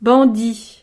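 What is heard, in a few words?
"bandit", French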